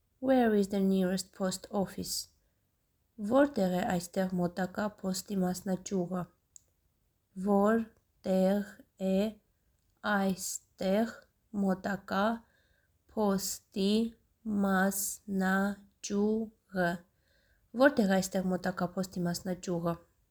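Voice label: English "Where is the nearest post office", Armenian "Vortegh e aystegh motaka posti masnachyughy"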